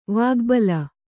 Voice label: "ವಾಗ್ಬಳ", Kannada

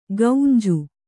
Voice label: "ಗೌಂಜು", Kannada

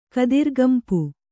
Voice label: "ಕತ್ತುರಿಗಂಪು", Kannada

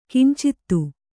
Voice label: "ಕಿಂಚಿತ್ತು", Kannada

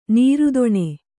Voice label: "ನಿರ್ಧನ", Kannada

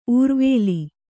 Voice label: "ಉರುವೇಲು", Kannada

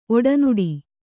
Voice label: "ಒಡನಾಡಿ", Kannada

